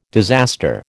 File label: "disaster", American